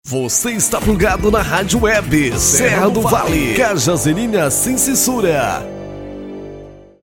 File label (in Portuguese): locutor gravacoes